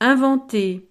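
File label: "inventer", French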